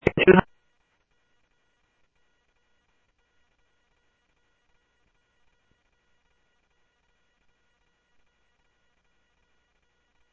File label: Interop Mutual Aid, scanner audio